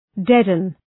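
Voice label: "deaden", English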